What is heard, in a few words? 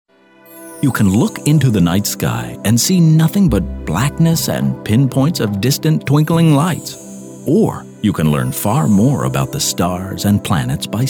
Broadcast